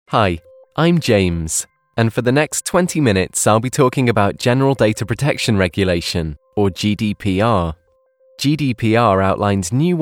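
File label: eLearning narration showreel, Male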